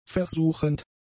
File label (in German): "versuchend", German; adjectives